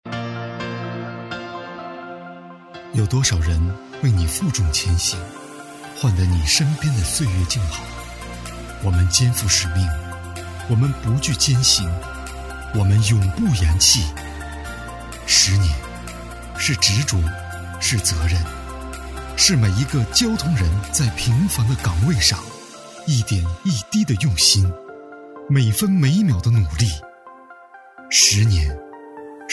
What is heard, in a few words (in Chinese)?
旁白; 向平凡的交通人致敬